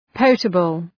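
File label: "potable", English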